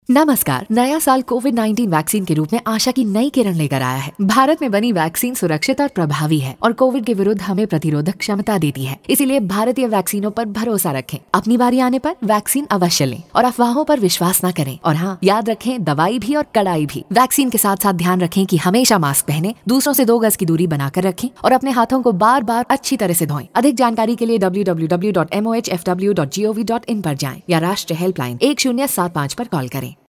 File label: Caller Tune Hindi